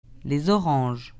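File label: "les oranges", French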